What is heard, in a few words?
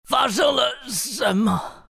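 death, Chinese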